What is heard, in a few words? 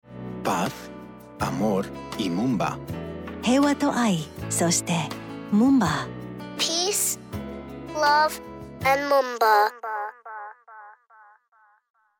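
American; moomba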